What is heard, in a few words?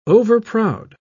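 "overproud", British English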